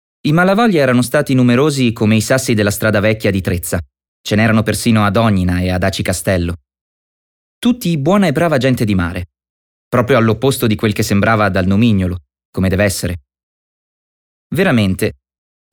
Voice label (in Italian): Descrittivo